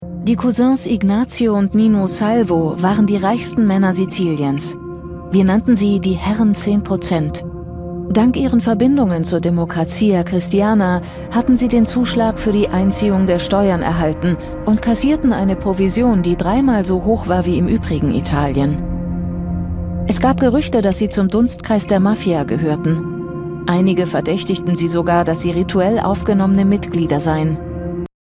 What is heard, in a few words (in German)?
kommentar; arte; corleone